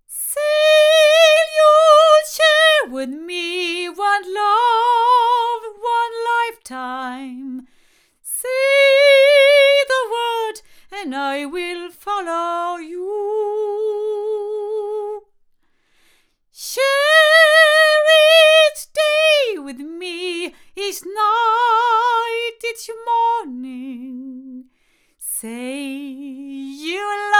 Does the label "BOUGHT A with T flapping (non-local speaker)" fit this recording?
No